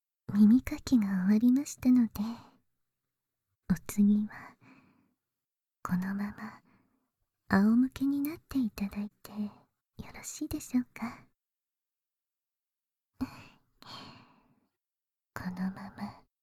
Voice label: 新・耳洗浄 簡易モノラル編集 効果音なし

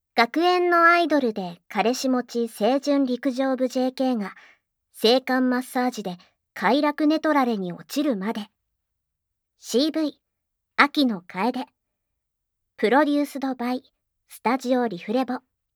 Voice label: タイトルコール, ASMR

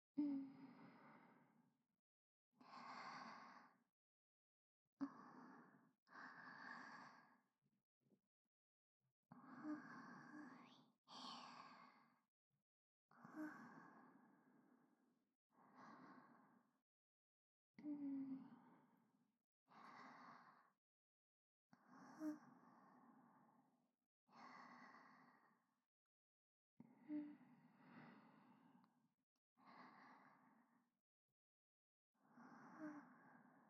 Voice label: 添い寝ループ おやすみ、ご主人; ループ編集音声

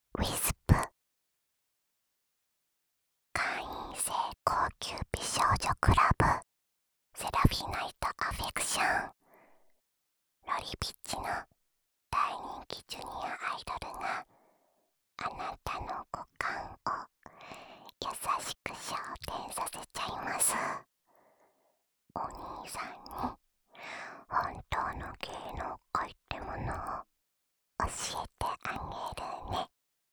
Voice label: タイトルコール

